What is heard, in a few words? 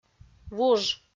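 "вуж", Komi, female; audio dict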